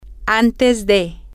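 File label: before, Spanish, female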